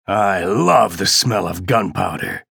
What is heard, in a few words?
chatter positive; ranger; frag